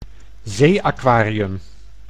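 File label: "zeeaquarium", Dutch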